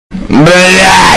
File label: loud blyat